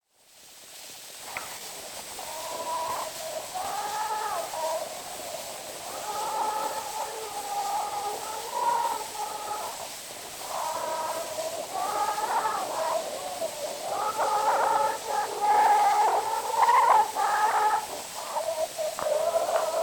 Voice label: Zulu Recordings; Father Franz Mayr